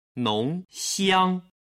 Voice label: "nongxiang", Chinese